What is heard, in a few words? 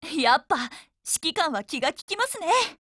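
FORMATION, Japanese; 97typeSMod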